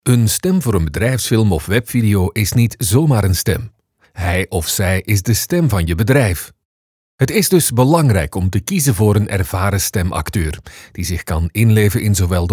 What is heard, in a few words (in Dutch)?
BEDRIJFSFILM